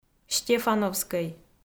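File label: "стефановскӧй", Komi, female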